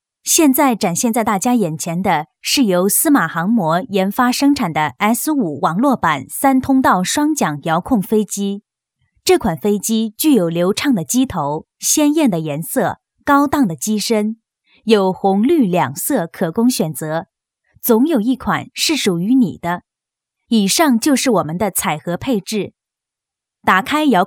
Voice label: 女 解说语气 产品使用说明

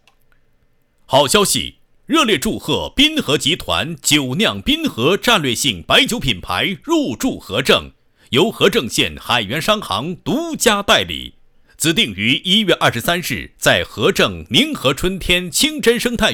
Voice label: 好消息 祝贺 入驻活动配音; 滨河集团

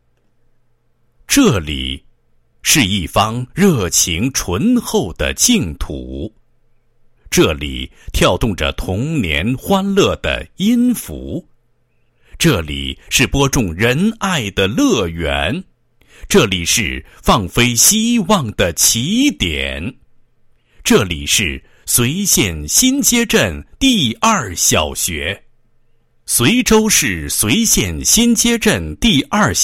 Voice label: 男 学校 小学宣传; 希望在这里放飞

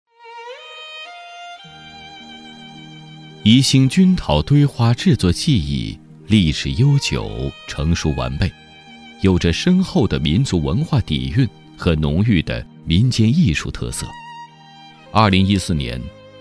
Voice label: 非遗宣传, 叙事; 宜兴均陶堆花

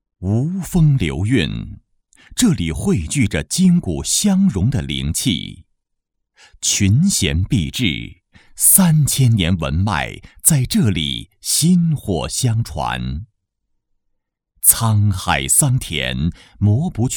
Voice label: 专题 鸿山镇, 国语男声配音; 鸿山镇样

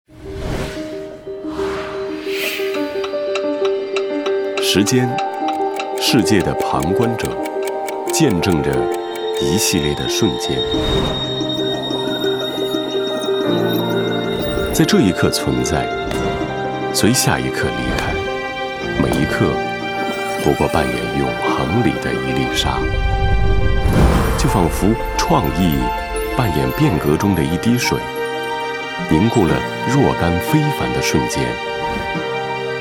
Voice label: 宣传片 自然

